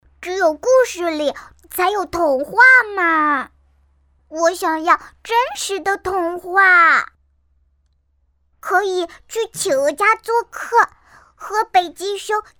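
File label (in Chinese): 男童35岁天真可爱; 哈尔滨极地馆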